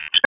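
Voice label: Dispatch 1, P25; WSO DISP 1; wake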